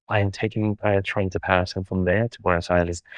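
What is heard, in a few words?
Voice Acting es M, Spanish, male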